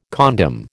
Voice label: "condom", American